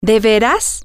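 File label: really, Spanish, female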